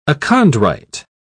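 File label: "achondrite", British English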